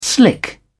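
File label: "slick", British English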